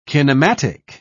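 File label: "kinematic", British English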